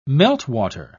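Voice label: "meltwater", British English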